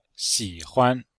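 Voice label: "xi3huan5", Chinese; Cours-de-Chinois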